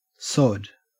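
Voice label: "sod", Australian English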